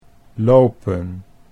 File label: "lopen", Dutch; 'to walk'